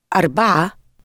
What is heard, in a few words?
number four Arabic